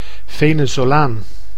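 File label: "Venezolaan", Dutch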